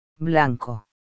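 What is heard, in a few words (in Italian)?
"bianco", italiano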